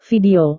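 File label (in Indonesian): "video", Indonesian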